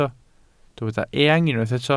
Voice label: "eang", welsh